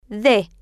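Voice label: "de" Spanish, female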